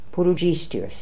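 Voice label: "Pooloodjistius", pronunciations; Fourth Way; Beelzebub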